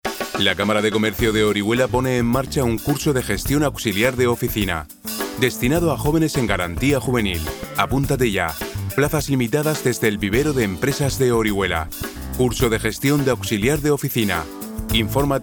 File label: male spanish castilian commercial; voices